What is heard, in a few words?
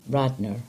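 English; Radnor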